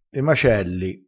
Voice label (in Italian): "macelli", crespinese